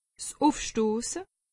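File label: "ufstosse", Alsatian; medecine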